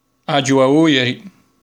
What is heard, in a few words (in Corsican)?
Aghju a voglia di, corse